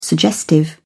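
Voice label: "suggestive", British English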